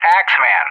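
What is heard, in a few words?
"Axeman", English; JTAC; Callsign; DCS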